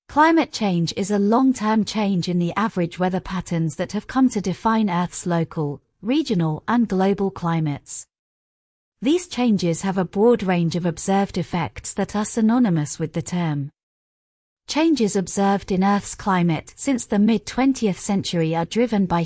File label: UK